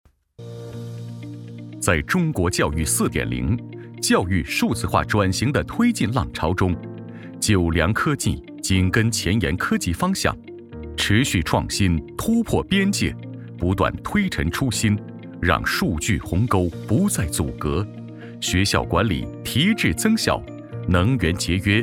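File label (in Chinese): 男国大音-教育数字化; 久良科技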